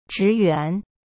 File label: "职员", Chinese; zhi yuan; cyberchinese